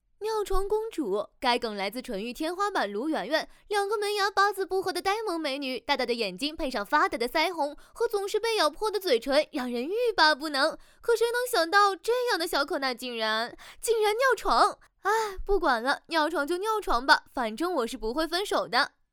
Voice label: 娱乐解说

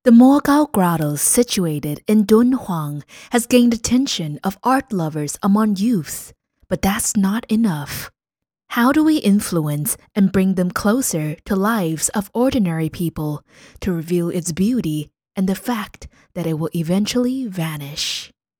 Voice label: 英旁白纪录片

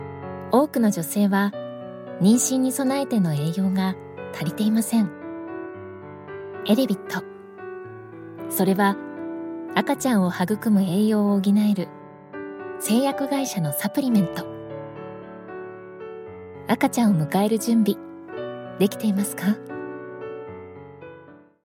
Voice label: 日语 女声

